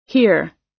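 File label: "here", US English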